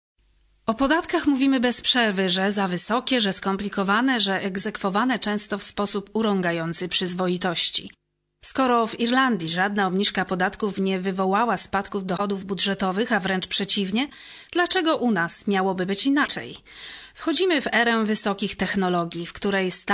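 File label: Polish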